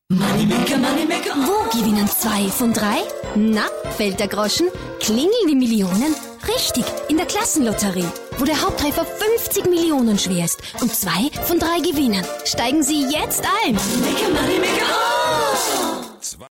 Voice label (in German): Frau OFF